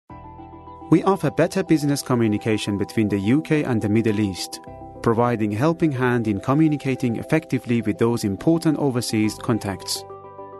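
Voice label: Farsi Male English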